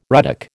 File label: "ruddock", American